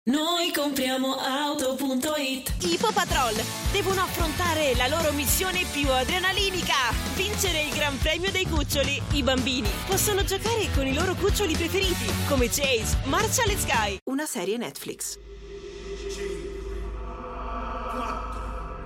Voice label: female italian compilation